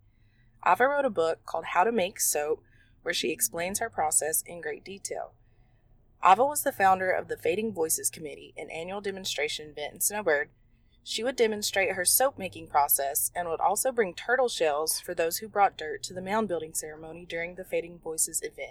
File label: Rattler english